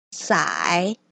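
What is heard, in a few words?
"sai", pinyin, third tone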